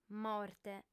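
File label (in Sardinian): "morte", female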